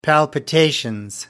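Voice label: "palpitations", British English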